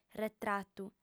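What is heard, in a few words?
"retratu", female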